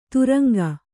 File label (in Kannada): "ದ್ರಂಗ", Kannada